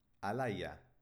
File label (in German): "alaia", baskische